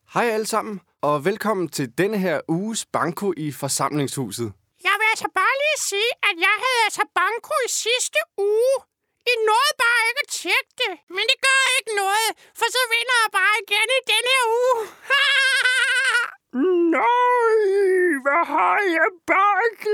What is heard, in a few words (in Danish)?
Tegnefilm